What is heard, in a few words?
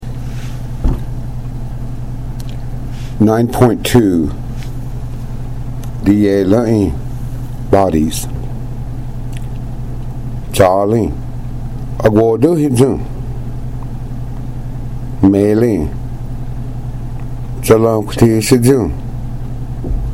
Dialog, cherokee language